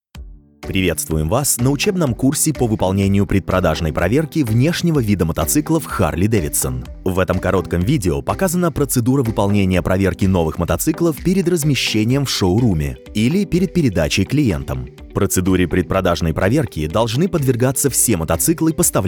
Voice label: Russian Voice Over; Harley-Davidson USA